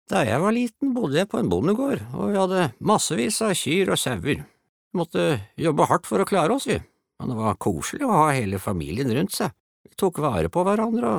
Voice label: no dub old man